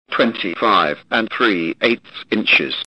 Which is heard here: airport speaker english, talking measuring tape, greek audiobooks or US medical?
talking measuring tape